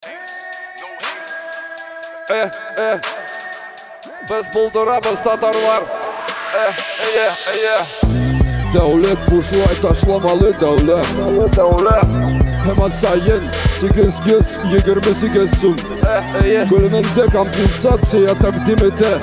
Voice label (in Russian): Tatarskaja socialnaja reklama